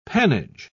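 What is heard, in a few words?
"pannage", British English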